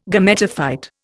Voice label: "Gametophyte", Indian